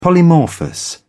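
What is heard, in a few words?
"polymorphous", British English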